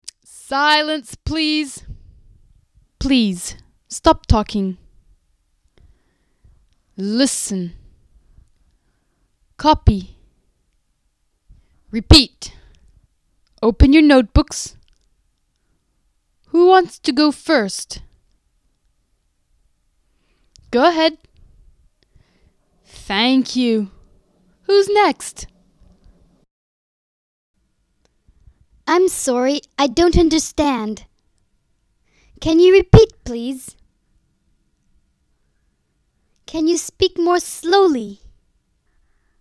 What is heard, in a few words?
classroom english